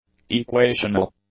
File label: "equational", English; adjectives